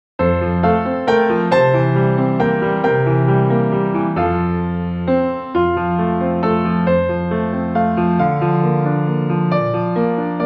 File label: English